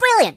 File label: Brilliant, Mobster; hedgewars